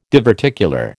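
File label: "diverticular", American English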